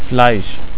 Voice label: "fleisch", German